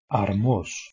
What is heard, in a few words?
"armos", Modern Greek; αρμός armos